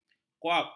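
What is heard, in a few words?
"kuap", Malay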